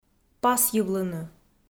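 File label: "пасйывлыны", Komi, female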